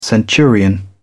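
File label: "centurion", British English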